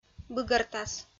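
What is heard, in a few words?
"быгӧртас", Komi-Zyrian, female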